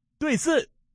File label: pair 4, male